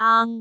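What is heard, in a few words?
"aang2", Cantonese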